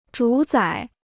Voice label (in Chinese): "主宰", Chinese